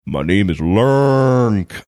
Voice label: saying my name is lurnk funny